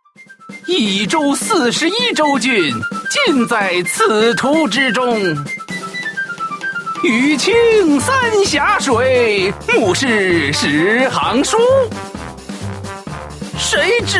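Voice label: male other comedic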